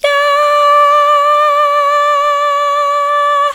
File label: "yeah", vocal